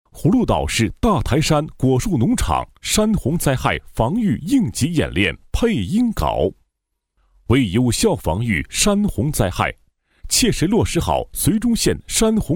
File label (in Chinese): 男 严肃正式; 大台山防御演练稿